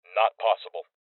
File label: Voices Refuse Male